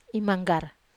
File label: "manggar", Wamesa